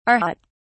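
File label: "arhat", British English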